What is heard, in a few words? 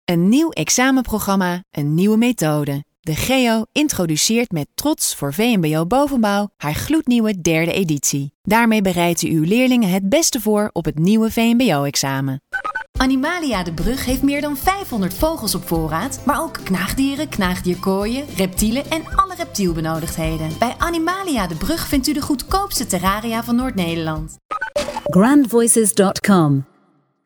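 Dutch, female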